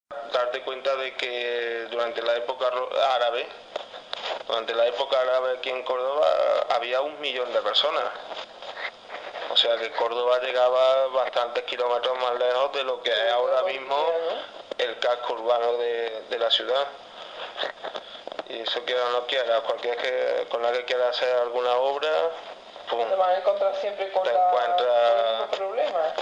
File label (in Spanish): cordobes nivel sociocultural medio alto; Córdoba; urban